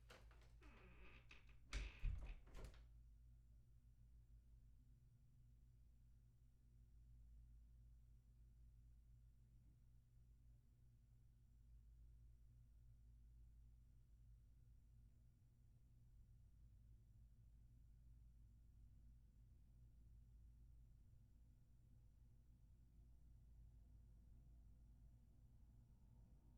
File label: Home Studio Test